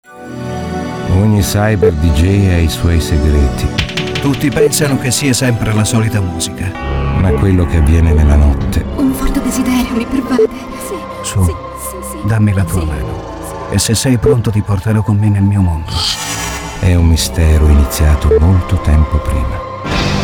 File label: trailer; cyberDJ